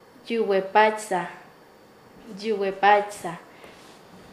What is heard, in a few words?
"argumentar", Nasa Yuwe; paez